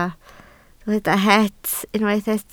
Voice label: "het", Welsh